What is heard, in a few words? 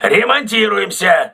voice message repairing, crew ships russian